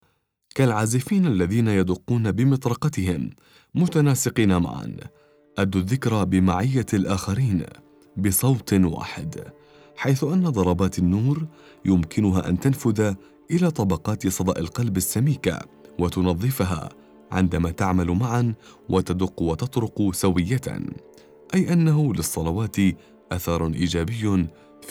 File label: narration